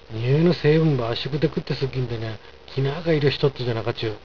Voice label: においの成分ば圧縮でくってすっぎんたにゃ、きなーか色しとっとじゃなかちゅ？, 有田弁; 臭いの成分を圧縮できるとするならば、黄色なのではないだろうか？

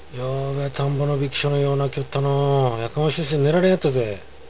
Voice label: よーべゃぁ、田んぼのびっきしょのよー鳴きよったのー。やかましゅーして寝られんやったぜー。, 有田弁; 昨夜は田の蛙がよく鳴いていたなぁ。うるさくて寝られなかったなぁ。

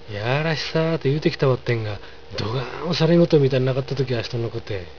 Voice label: 「やーらしさー」て言うてきたばってんが、どがーんもされんごとみたんなかったとけ、あしとんの子て。, 有田弁; みっともない、醜悪、顔立ちがいまいち

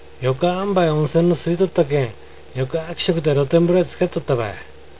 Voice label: よかーんばい温泉のすいとったけん、よかーきしょくで露天風呂ぇ漬かっとったばい。, 有田弁; いい具合に温泉がすいてたので、いい気持ちで露天風呂に漬かっていたよ。; 佐賀弁; よかーんばい／よかーきしょく; いいあんばい、いい調子、いい具合／いい気持ち

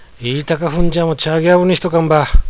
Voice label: 有田弁; いーたかふんぢゃーもちゃーぎゃーぶんにしとかんば。。; 言いたい放題もいい加減にして（適当なところで切り上げて）おかないと

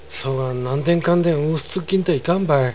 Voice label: そがんなんでんかんでんうー捨つっぎんた、いかんばい。, 有田弁; そんなに何でもかんでも捨てまくったら良くない（あとで困る）よ。

